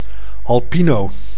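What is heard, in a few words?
"alpino", Dutch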